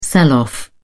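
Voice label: "sell off", British English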